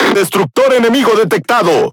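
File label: Spanish Speech; Detection Enemy Destroyer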